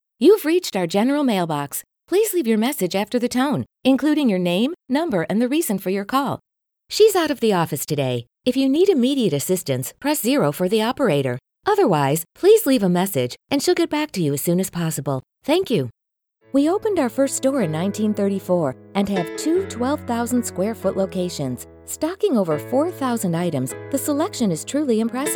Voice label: IVR Phone Messaging